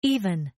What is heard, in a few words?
Croupier Even, Casino; Casinos